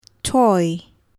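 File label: "toy", English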